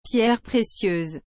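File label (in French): "pierres precieuses", French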